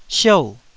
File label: "siou4", Taiwanese syllable